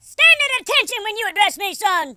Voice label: hello, Drill Sergeant; speech